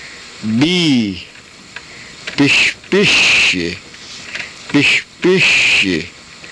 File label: "pishpishih", karuk